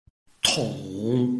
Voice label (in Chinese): tong3, Chinese